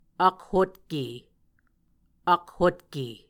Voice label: "akhotke", Muscogee

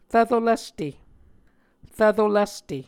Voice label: "rvro lvste", Muscogee

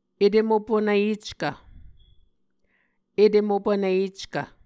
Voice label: "etem opunvyeckv", Muscogee

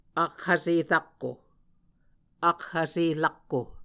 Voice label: "akhvse rakko", Muscogee

